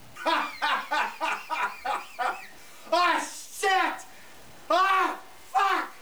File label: Cheer